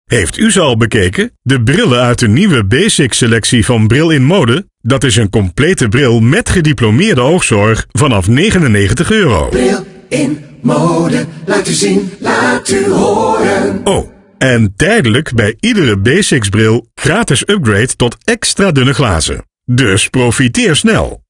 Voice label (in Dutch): radiospot